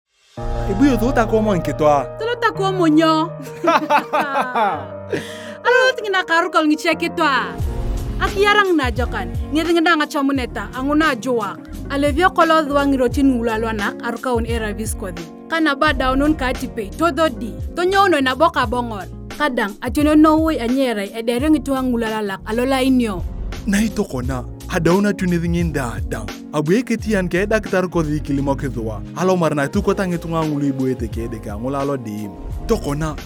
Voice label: HIV Spot, Karamajong radio spot